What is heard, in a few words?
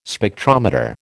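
"Spectrometer", American English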